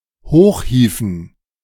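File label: German "hochhieven"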